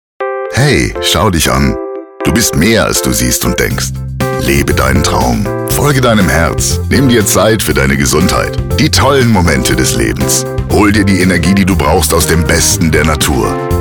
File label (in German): Werbung; Liquid Nature